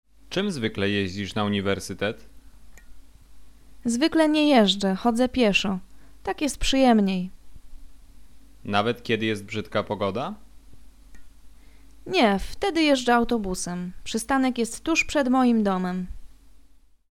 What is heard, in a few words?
conversation; lektorek; firstyear